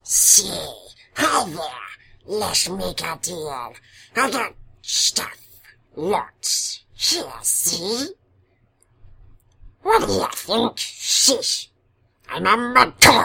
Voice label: mudcrab merchant high